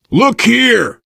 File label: look here, biker, survivor voice; Left 4 Dead 2